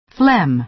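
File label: "phlegm", American English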